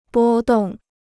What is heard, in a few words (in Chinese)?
"波动", Chinese